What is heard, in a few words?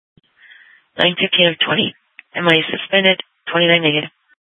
Sheriff, scanner; Maricopa County (P25) - Thompson Peak; Sheriff Fountain Hills